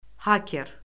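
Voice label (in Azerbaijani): "haker", Azerbaijani; Orfoqrafiya Lüğəti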